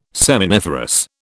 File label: "seminiferous", British